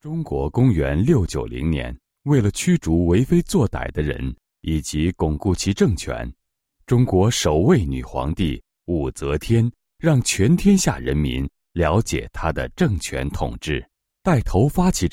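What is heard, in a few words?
Mandarin Chinese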